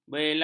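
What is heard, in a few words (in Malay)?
"bela", Malay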